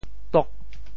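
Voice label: "tok8", Teochew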